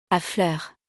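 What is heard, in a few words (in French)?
"A fleurs", French; vocabulaire; le lexique de l'habillement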